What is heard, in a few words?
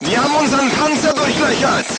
voice message player tank hit, crew tanks german